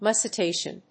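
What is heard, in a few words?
"mussitation", English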